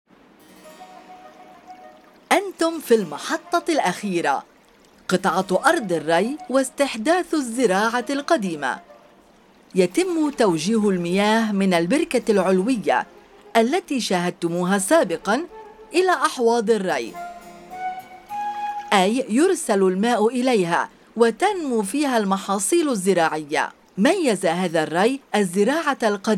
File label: Arabic